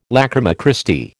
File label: "lachryma Christi", American